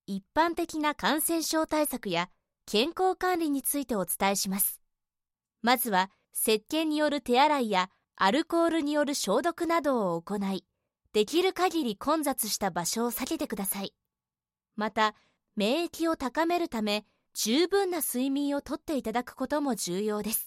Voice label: japanese e-learning